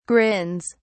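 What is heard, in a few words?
"grins", British English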